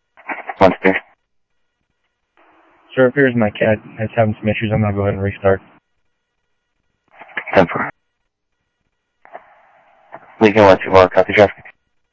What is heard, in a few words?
South Scottsdale Sheriff, scanner audio